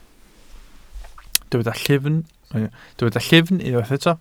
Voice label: "llyfn", Welsh